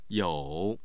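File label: "you3", Mandarin Chinese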